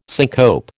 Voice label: "syncope", American